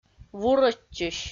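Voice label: "вурӧдчысь", Komi, female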